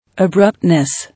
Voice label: "Abruptness", English

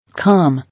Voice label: "Calm", English

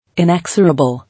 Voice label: "Inexorable", English

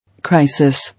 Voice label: "Crisis", English

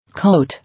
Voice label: "Coat", English

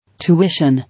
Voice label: "Tuition", English

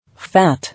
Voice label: "Fat", English

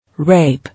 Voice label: "Rape", English

